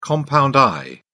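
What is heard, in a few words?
"compound eye", English, British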